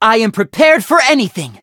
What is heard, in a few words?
level up, en